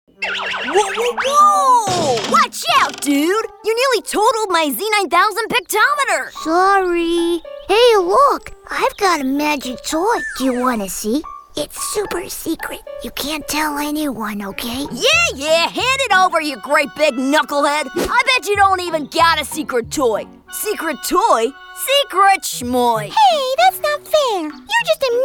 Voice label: US Animation